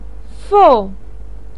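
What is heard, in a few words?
"fou", Mandarin Chinese, fourth tone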